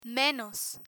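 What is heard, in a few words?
"menos", Spanish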